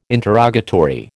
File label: "interrogatory", American